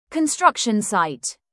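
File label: "construction site" British; Business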